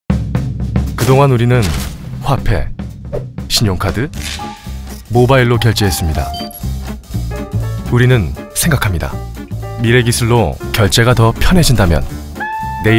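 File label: Korean, male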